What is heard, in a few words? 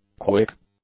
"quick", English; nouns